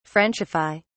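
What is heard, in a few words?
"frenchify", British English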